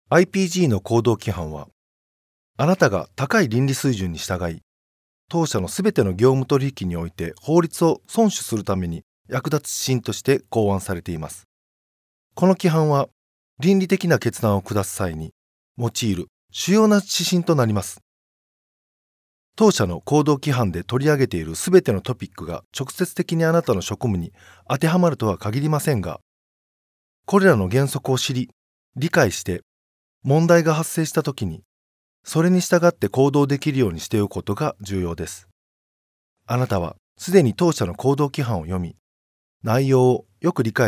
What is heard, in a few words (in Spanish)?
locutor japones, Japanese